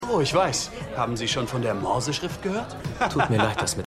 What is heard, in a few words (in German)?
Lauter Mann; Stimmproben